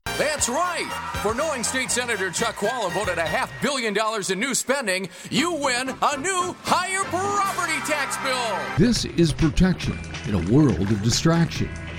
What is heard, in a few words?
COMMERICAL